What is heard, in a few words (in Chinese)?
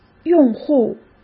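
"用户", Mandarin Chinese; hsk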